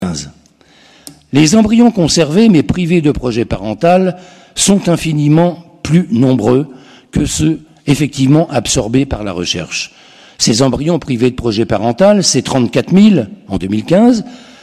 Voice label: voice tape